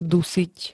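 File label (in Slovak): "dusiť", Slovak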